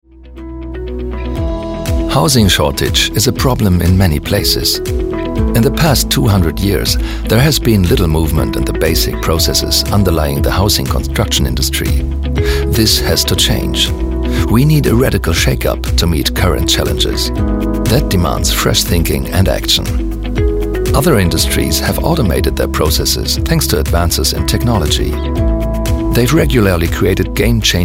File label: EN Imagefilm Immo